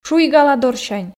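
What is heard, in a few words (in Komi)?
"шуйгаладорсянь", Komi, female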